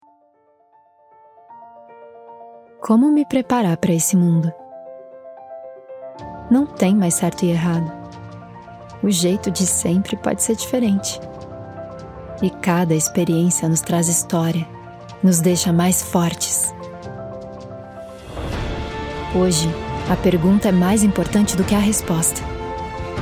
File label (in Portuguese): Jovem Inspiracional